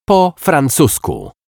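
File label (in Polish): "po francusku", Polish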